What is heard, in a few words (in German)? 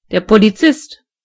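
"police", German; gcompris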